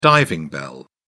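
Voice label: "diving bell", British English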